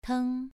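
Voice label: "teng" Mandarin Chinese, second tone, pronunciation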